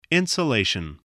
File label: "insulation", voice spelling